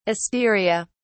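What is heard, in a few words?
"asteria", British English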